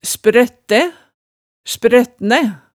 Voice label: "sprøttæ", hemsedalsmaalet

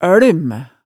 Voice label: "ølm", hemsedalsmaalet tale

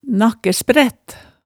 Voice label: "nakkæsprætt", Hemsedal

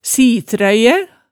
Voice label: "sitrøyæ", hemsedalsmaalet

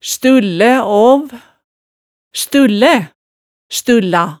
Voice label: "stullæ aav", hemsedalsmaalet

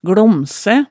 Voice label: "glomsæ", hemsedalsmaalet

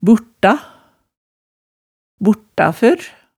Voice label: "burta, burtafør", hemsedalsmaalet tale

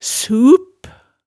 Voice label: "sup", hemsedalsmaalet

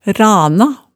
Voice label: "rana", Hemsedal

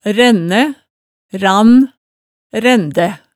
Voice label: "rænnæ", Hemsedal

